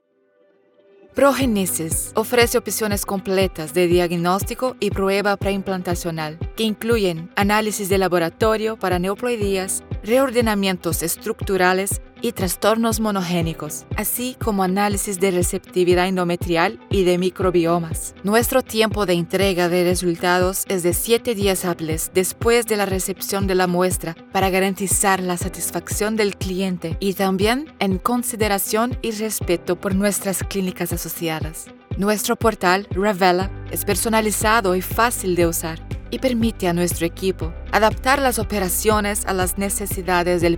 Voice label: medical fertility event presentation confident clear female; Spanish; PROGENESIS